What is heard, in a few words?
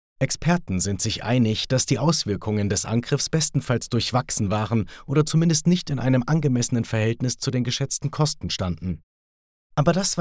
VO Doku